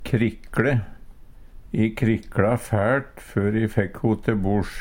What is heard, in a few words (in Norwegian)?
krikle, Numedalsmål; Verb; Uttrykk